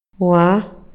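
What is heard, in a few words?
Maya, male; INALI; MayaYuc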